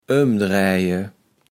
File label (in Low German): "ömdräje", kaevels platt